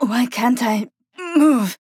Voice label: stunned or frozen; paladins; Flak

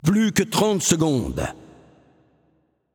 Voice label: Announcer French Time Remaining

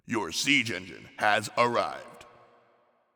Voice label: Announcer Match Siege Arrived; Paladins

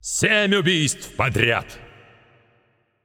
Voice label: Announcer Russian Spree 7 Kills

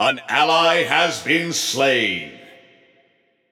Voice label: Announcer Match Slain Ally; BombKing

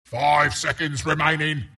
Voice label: announcer count pick; Bristleback announcer

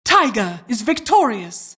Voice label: announcer custom victorious

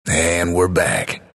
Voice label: announcer disconnect reconnected; bastion

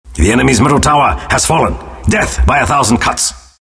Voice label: announcer; Juggernaut

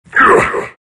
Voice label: grunt; abaddon

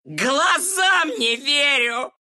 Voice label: lose, ru; Meepo; Dota 2

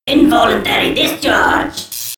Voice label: low

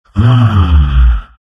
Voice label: happy; Dota 2

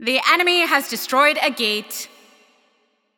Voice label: Announcer Match Destroyed Team Gate

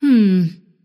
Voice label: happy; Anti-Mage

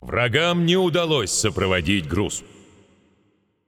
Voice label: Announcer Russian Match Payload Enemy Successful

